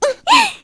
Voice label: vox get, kr; heroes; Cleo; kingsraid